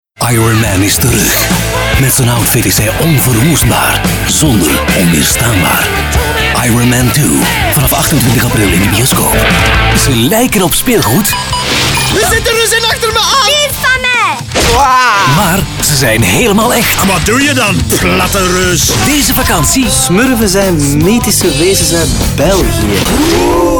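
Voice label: voices male flemish film trailer compilation; devine voices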